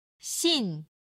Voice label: "xin4", chinese